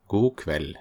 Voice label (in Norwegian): "God kveld", Norwegian